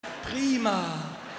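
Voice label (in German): "prima", German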